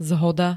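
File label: "zhoda", Slovak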